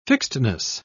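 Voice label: "fixedness", British English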